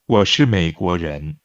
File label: Mandarin Conversation